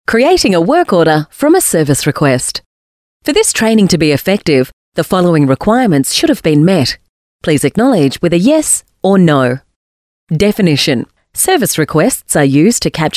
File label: female talent corporate